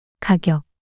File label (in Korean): "가격" 단어 여자